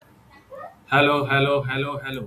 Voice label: voice message